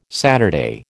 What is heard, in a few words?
"Saturday", American